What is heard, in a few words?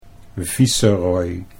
"viceroy", Dutch